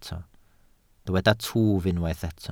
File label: "twf", Welsh